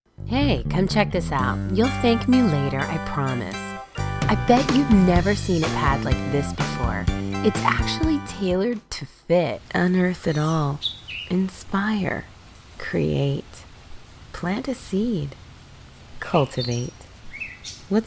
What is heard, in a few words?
Commercial